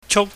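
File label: "chuk", Cantonese, high tone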